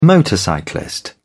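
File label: "motorcyclist", British English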